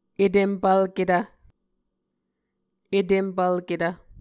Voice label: "etempvlketv", muscogee

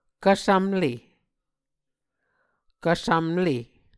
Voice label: "kvsvmle", Muscogee

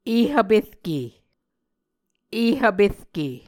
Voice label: "ehvperke", muscogee

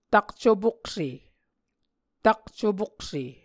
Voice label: "takcopokse", Muscogee

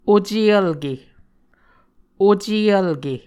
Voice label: "ocevlke", Muscogee

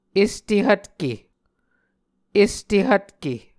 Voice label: "este hvtke", Muscogee

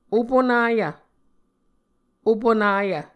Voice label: "opunayv", Muscogee

